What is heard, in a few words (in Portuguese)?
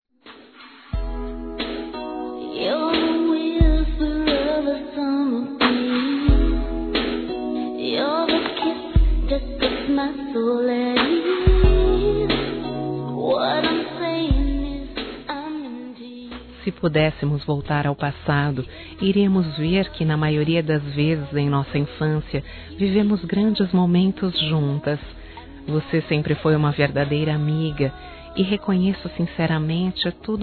IRMÃ F, Telemensagens Desculpas fem; Telemensagens 2017